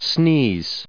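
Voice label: "sneeze", English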